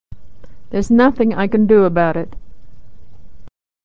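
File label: can, American English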